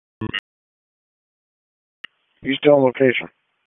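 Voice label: EMS Dispatch, p25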